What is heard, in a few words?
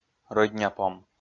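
"рӧдняпом", Komi, male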